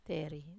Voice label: "teri", Wamesa